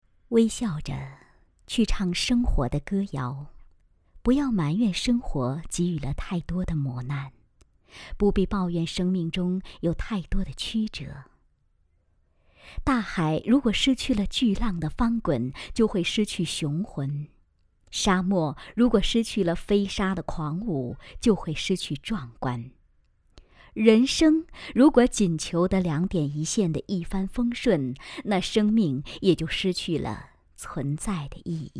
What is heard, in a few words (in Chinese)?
散文类